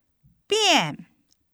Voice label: "遍", Chinese

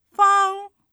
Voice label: "封", Chinese

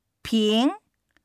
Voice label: "瓶", Chinese

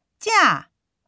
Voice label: "架", Chinese

